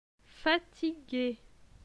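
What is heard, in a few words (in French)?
"Fatigue", French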